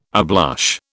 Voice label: "ablush", British